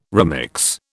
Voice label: "remix", British

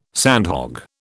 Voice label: "sandhog", British

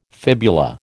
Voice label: "fibula", American

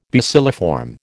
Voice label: "bacilliform", American

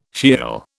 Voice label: "Sheol", British

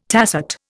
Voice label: "tacet", Indian

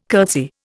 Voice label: "curtsy", Indian

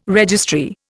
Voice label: "registry", Indian

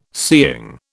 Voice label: "seeing", British

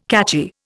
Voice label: "catchy", Indian

